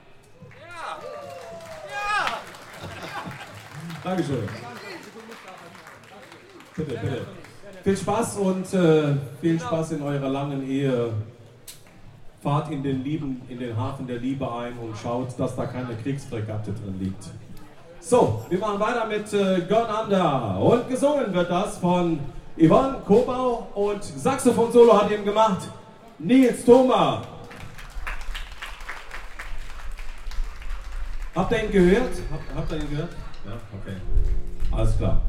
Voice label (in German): Ansage; Place D'Armes, LU-Zentrum